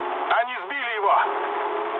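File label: Russian aircraft destroyed mood low, speech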